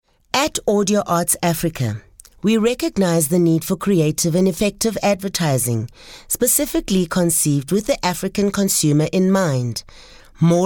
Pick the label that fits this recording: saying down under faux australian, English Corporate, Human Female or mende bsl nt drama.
English Corporate